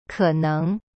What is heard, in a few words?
"可能" ke neng, chinese; cyberchinese